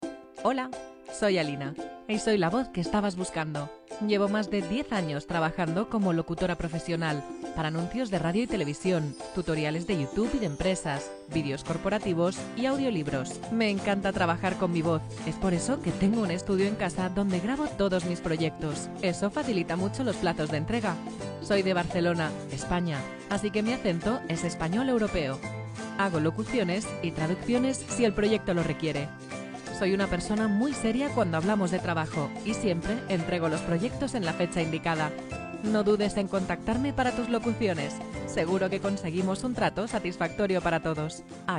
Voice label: 西班牙语女 外语 小语种 西班牙语女声

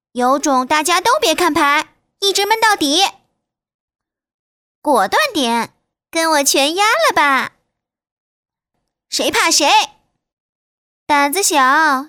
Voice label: 动画 游戏 爱萌音棋牌游戏

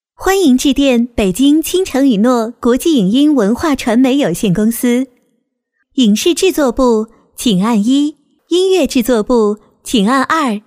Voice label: 其他 彩铃 甜美彩铃

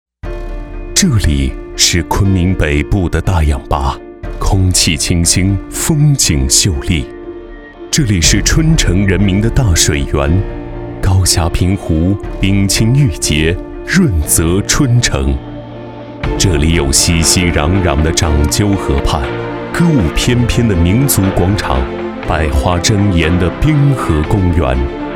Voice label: 男国 专题 学校 幼儿园 沉稳; 禄劝县幼儿园

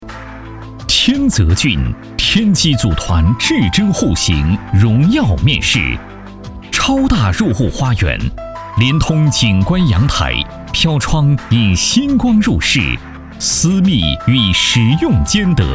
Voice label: 男国 宣传片 地产 房产样音

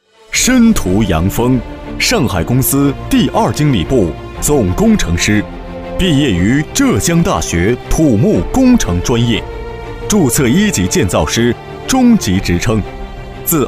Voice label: 男 专题 稳重; 八局工匠

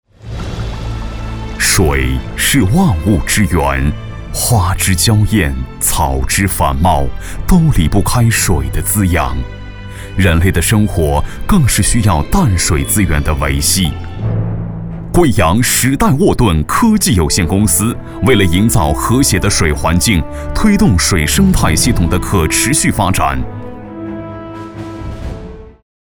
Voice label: 宣传片 企业 大气; 贵阳; 贵阳时代沃顿科技

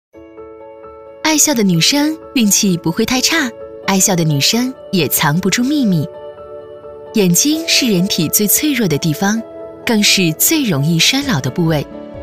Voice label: 女国 专题 产品 眼睛 时尚

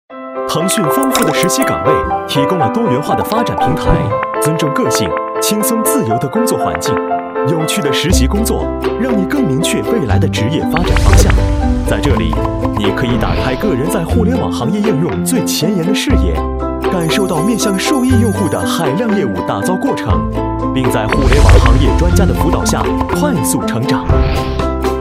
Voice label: MG动画 活力; 宣传片; 病毒; 腾讯

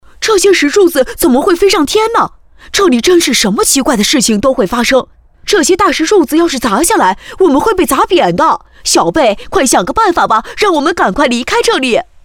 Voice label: 动画片 男童; 男童动画片选段

